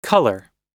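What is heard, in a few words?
"colour", American English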